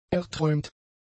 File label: "erträumt", German; adjectives